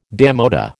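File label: "démodé", American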